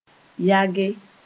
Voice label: "yage", Moore

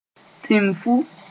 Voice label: "timfu", Moore; big rat sp

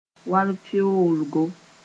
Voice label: "walpeoolgo", Mooré

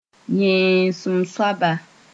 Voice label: "yẽesem-soaba", Mooré

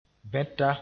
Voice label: "bẽta", Mooré; suffering

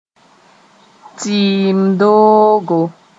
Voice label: "tɩɩm doogo", moore

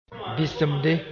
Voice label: "bɩsemde", moore; scarification on the cheekcomma

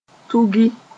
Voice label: "tugi", moore

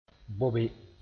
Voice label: "bobe", moore; dress up in sth.

